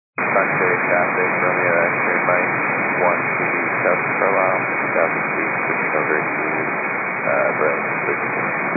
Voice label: 122.925 MHz; scanner radio